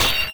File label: heavy hurt, pain; player; Dystopia